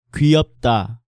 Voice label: "Gwiyeopda", Korean